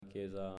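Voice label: "Chiesa", Italian, male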